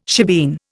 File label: "shebeen", Indian